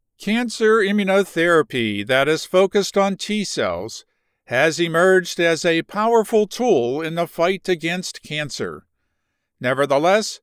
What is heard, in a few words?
science medical narration; Med therapies cancer immunotherapy